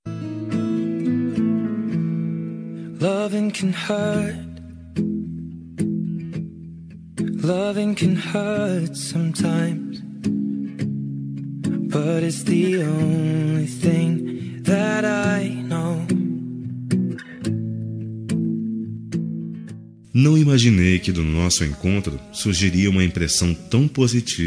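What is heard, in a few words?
male; orei te conhecer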